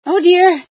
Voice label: female sci fear

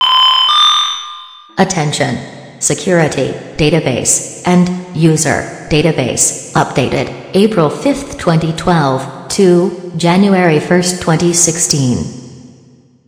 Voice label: announcement; sven; core

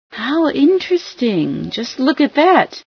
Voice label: how interesting, female sci

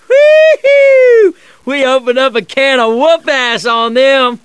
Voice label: cheer; l4d2 ellis

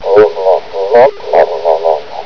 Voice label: mumble

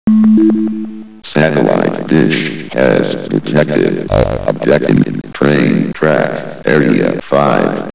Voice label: announcement; sven; sector19

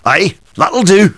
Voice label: cheer; tf2demoman

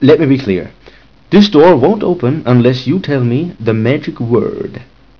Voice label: word machine speech

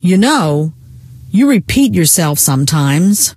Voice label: you repeat, scientist female

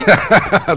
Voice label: Cheer; Lamar Davis

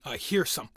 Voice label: scared; darkwing

